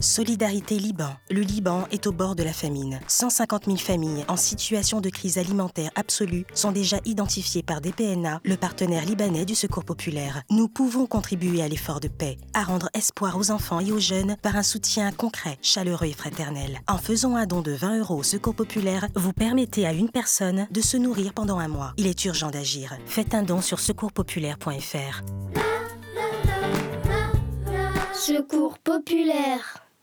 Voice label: ton de sensilisation sérieux engagé Spot radio; SOUTIEN LIBAN Secours populaire

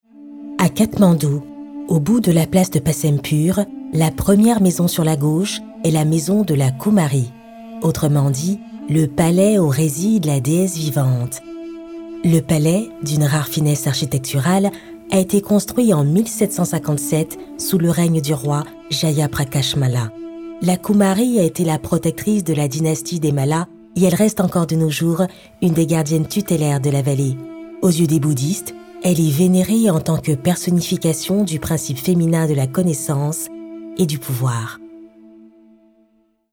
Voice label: narration documentaire touristique, voix off; LA KUMARI

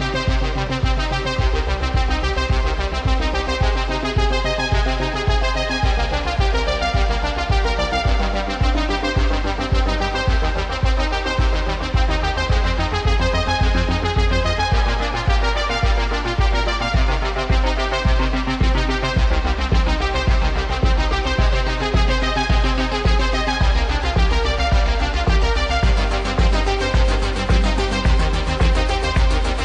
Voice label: Ton de présentation chaleureux incitatif type découverte évasion; Habitat Jardin 2018

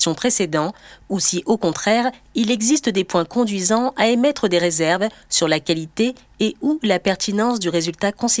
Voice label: e-learning interprétation d'un essai thérapeutique femme medium grave, voix off

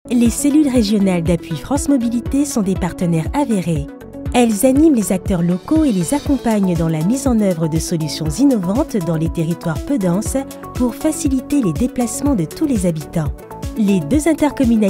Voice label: Motion design enjoué rassurant dynamique; Les cellules dappuis France Mobilité CEREMA

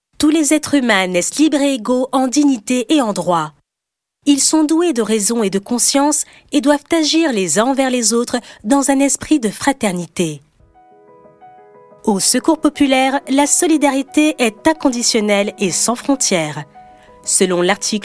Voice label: voix Institutionnelle présentation Ton Informatif Engage; CONGRES 2019 SECOURS POPULAIRE

